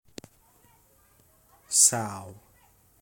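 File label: "sough", English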